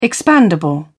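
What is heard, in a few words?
"expandable", British English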